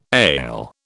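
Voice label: "ail", British English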